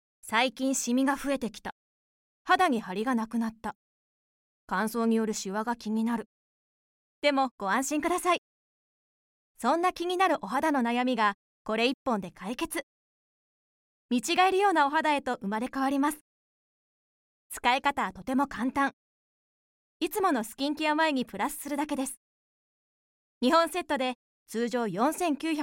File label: female; 通販番組